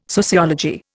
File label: "sociology", Indian